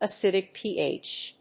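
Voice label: "acidic pH", English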